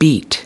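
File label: "beat", US English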